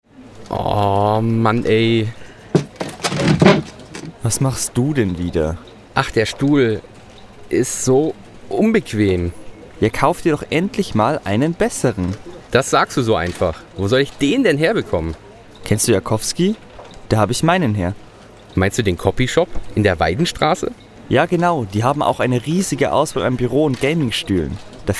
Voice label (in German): Werbung